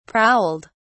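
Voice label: "prowled", British English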